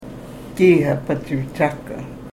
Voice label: karuk